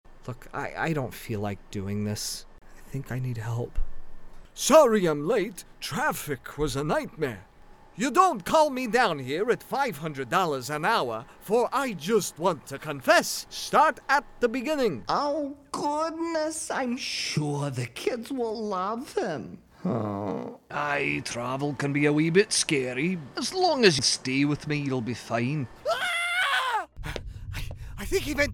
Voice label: character VO; voiceover